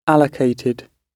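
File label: "allocated", British English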